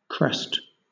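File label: "crest", British English; pronunciations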